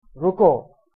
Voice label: "stop", Hindi